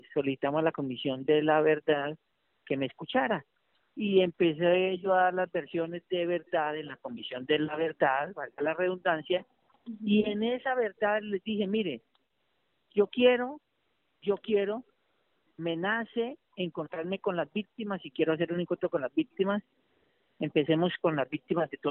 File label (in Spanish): fragmento entrevista, colombia; Coronel